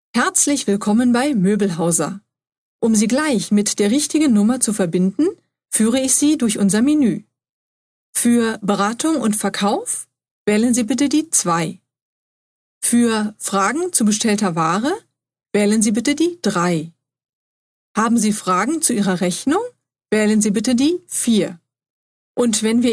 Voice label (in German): Ansage Moebelhaus